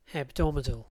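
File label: "hebdomadal", British English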